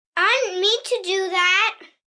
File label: Didnt Mean To, 1 boy 8 yrs; Talking